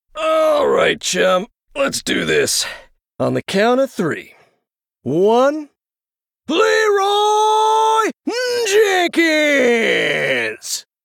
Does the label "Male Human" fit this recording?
Yes